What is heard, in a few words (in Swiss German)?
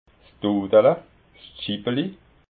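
"dudala", Alsatian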